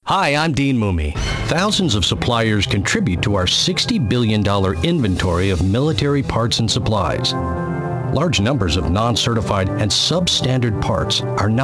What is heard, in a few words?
Narration, Male voices